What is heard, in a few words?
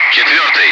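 Russian bright; Wingman; DCS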